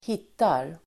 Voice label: "hittar", Swedish

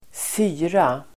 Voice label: "fyra", Swedish

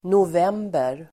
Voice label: "november", Swedish

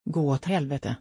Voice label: "Gå åt helvete", Swedish

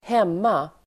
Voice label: "hemma", Swedish

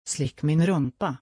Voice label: "Slick min rumpa", Swedish

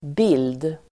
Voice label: "bild", Swedish

